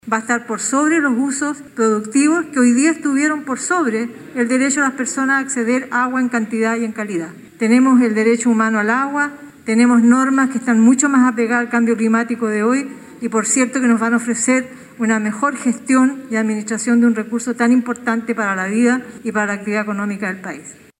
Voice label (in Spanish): cuña